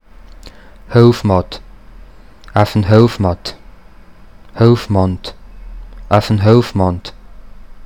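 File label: Hofmahd dialect; Hofmahd Mundart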